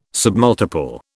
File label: "submultiple", British